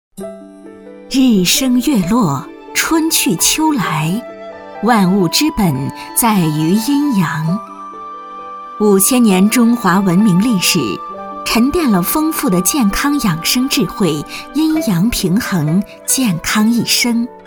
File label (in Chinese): 女 专题 温热理疗床 大气舒缓, 国语女声配音; BONLIFE